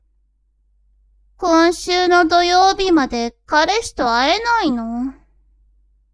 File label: woman